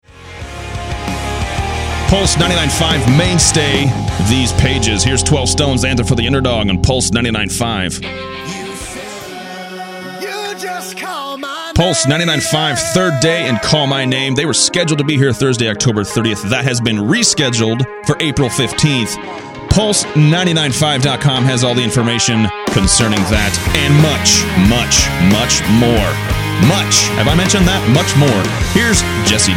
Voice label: Aircheck